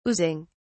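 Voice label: "oozing", British English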